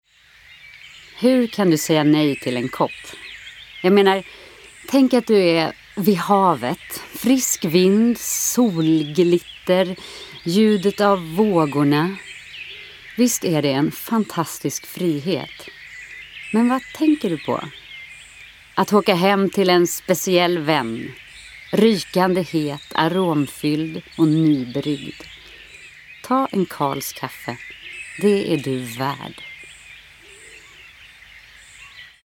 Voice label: acting